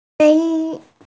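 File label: "yun2", Mandarin Chinese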